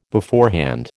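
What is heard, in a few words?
"beforehand", American English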